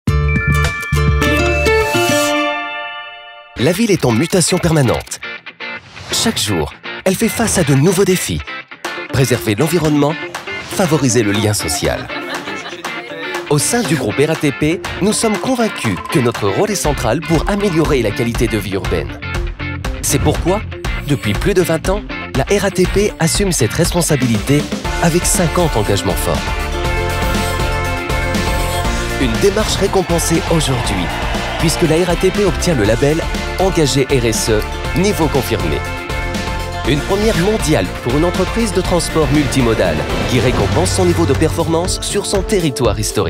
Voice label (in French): Corporate, voix off; RATP 1er opérateur